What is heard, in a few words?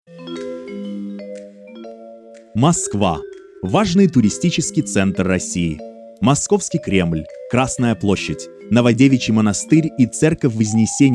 Announcer Newscaster, Russian Voice Over